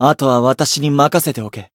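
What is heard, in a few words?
variable chant, Japanese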